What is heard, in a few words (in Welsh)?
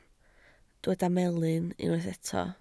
"melyn", Welsh